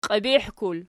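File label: "qiibihkul" nominative, Archi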